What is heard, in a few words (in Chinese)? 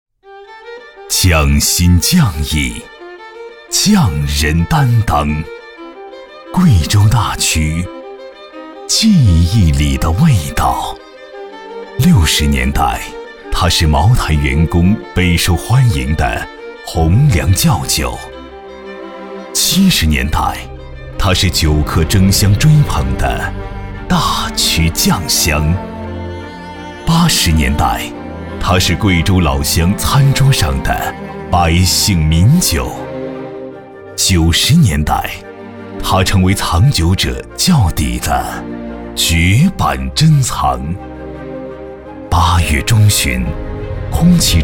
男 宣传片 大气浑厚; 贵州大曲